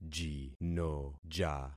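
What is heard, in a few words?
"jinoja", concatenated syllables; Affixing experiment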